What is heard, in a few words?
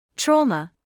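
"trauma", British English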